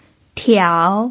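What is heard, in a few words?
"tiao", Mandarin Chinese pinyin, third tone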